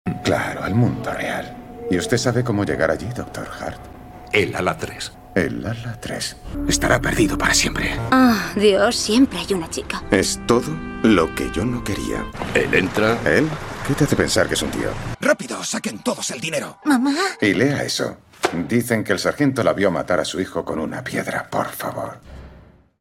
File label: Spanish Spain